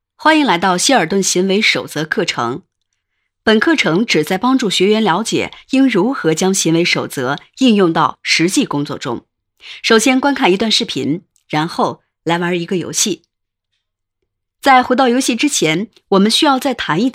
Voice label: 课件 端庄 正式

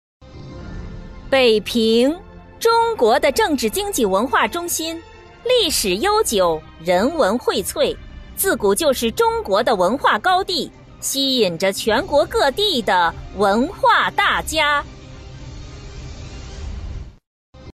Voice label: 宣传片大气恢弘

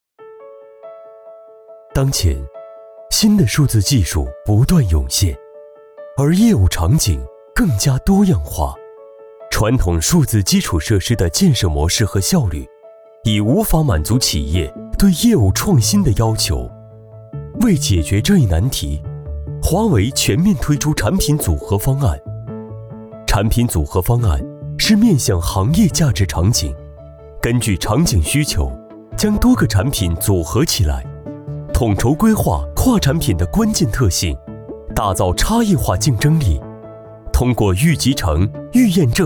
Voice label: 专题科技时尚磁性; 华为组合方案

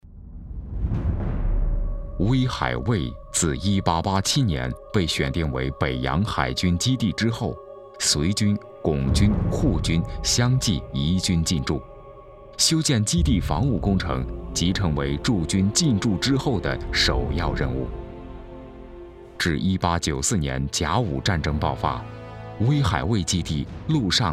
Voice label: 纪录 军事 炮台

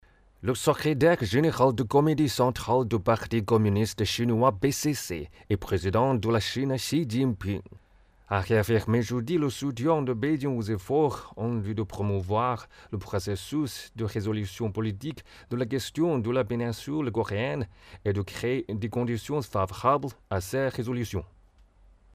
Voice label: 法语样音

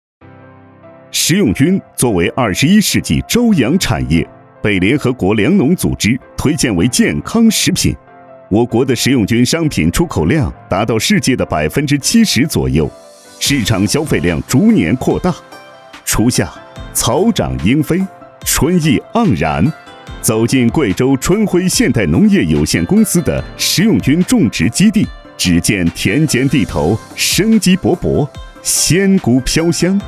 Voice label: 科技企业成熟欢快宣传; 春辉农业

